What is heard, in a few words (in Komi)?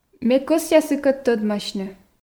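ме кӧсъя сыкӧд тӧдмасьны, Komi, female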